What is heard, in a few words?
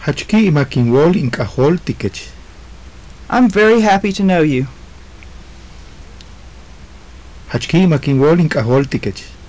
conversation, maya; Jach ki'imak in wóol in k'ajóoltikech.; I'm very happy to know you.